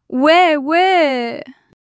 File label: fluster